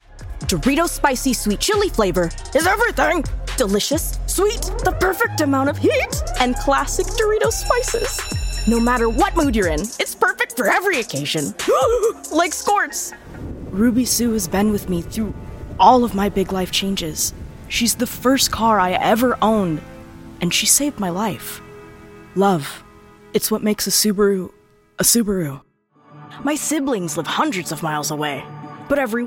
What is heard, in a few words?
Commercial